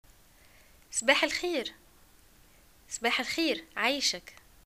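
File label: greeting good morning, Tunisian Arabic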